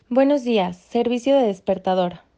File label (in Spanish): hoteleria aviso despertador; spanish